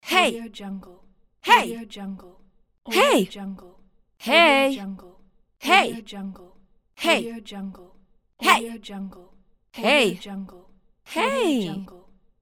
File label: hey woman voice; medusamusiclab